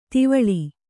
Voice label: "ಧವಲಿ", Kannada

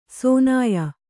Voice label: "ಸೋನಾಯ", kannada

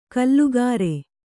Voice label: "ಕೂಲಿಕಾರ", kannada

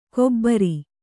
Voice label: "ಕೊಬರಿ", Kannada

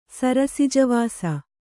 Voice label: "ಸರಸಿಜವಾಸ", Kannada

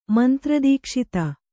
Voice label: "ಮಂತ್ರದೀಕ್ಷಿತ", Kannada; mantra dīkṣita

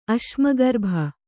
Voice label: "ಅಶ್ಮಗರ್ಭ", Kannada

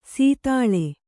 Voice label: "ಸೀತಾಳೆ", Kannada

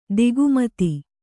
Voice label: "ತಿಗ್ಮತೆ", Kannada

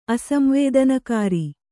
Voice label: "ಅಸಂವೇದನಕಾರಿ", Kannada

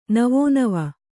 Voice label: "ನವೋನವ", Kannada

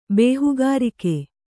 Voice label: "ಬೇಹುಗಾರಿಕೆ", Kannada

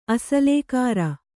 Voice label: "ಅಸಲೇಕಾರ", Kannada

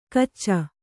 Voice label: "ಕಿಚ", kannada

